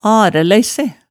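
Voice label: "arælæisse", Hemsedal dialect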